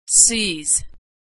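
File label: "seize", American English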